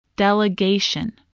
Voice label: "delegation", English

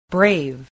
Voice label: "brave", spelling

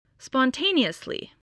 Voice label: "spontaneously", voice

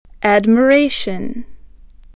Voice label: "admiration", English voice

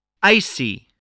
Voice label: "icy", voice spelling

